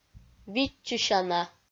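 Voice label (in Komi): "видзчысяна", Komi, female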